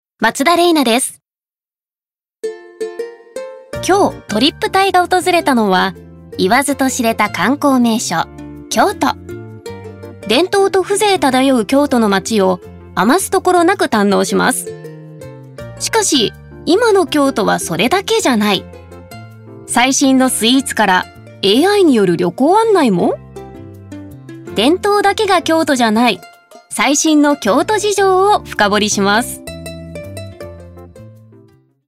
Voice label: ナチュラル

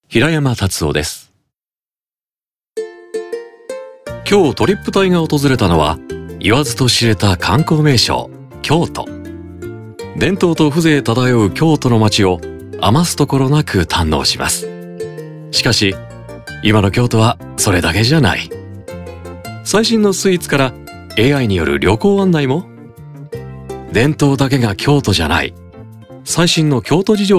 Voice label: ナチュラル

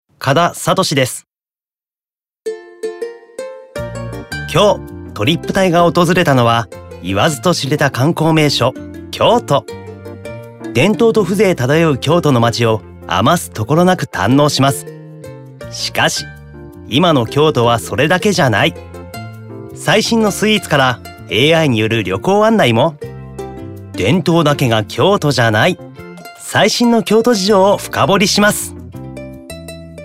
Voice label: ナチュラル

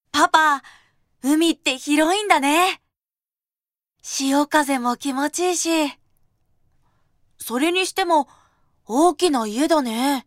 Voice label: セリフ 男の子

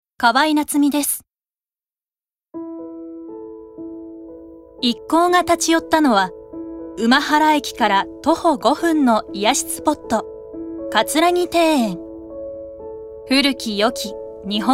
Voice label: ノーマル

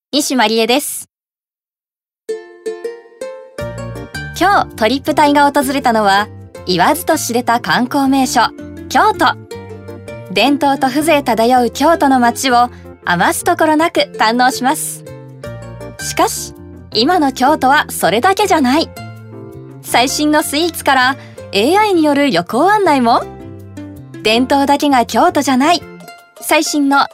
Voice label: ナチュラル

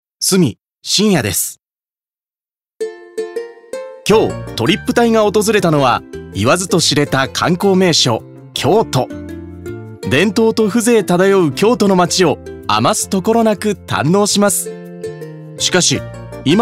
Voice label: ナチュラル

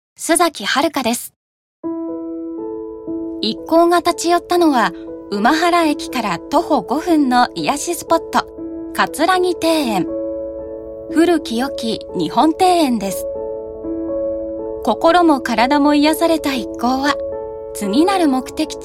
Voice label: ノーマル

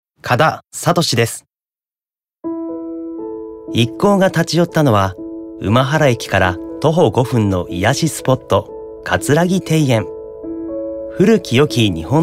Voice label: ノーマル

